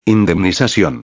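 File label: "indemnizacion", Spanish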